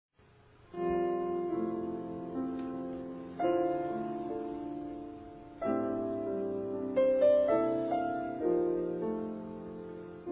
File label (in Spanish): programa radio; "Arco Iris"